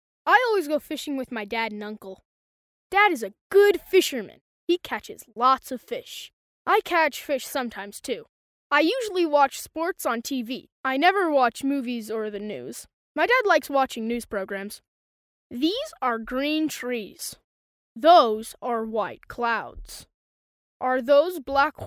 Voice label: child voice over